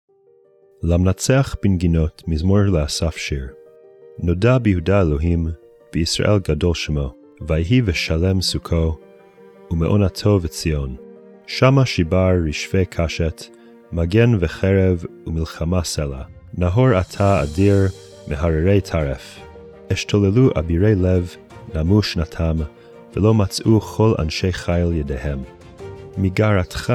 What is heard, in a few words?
poetry and prayer psalm hebrew; Meir Soloveichik